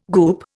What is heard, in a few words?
"goop", Indian